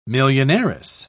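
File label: "millionairess", British English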